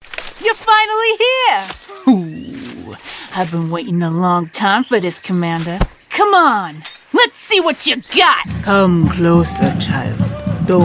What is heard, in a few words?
english canadian video games